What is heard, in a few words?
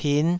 "pin5", taiwanese